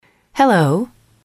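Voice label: "hello", English, female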